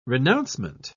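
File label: "renouncement", English, British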